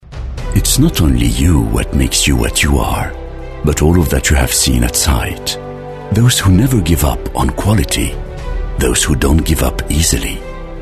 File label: english american voice over male